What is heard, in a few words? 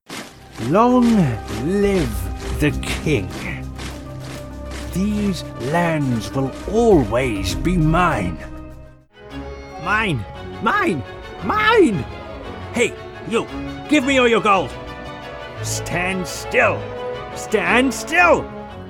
Voice-Over Character